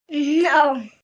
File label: "Nnno", Response, 1 boy, 6 yrs.; Talking Sound Effects; Sound Effects:Voice; VoiceBox